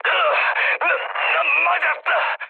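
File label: Korean damaged pilot mood med, speech; War Thunder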